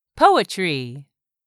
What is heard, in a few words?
"poetry", English; 3000 words